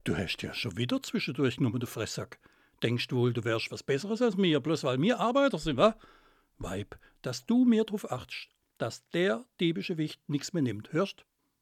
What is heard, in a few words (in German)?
badisch